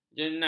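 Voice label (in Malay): "jeneng", Malay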